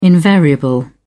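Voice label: "invariable", English, uk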